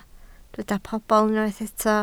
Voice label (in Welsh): "pobl", Welsh